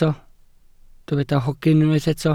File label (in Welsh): "hogyn" Welsh